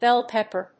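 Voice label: "Bell Pepper", English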